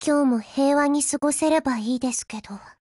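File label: HELLO, Japanese; GF Alarm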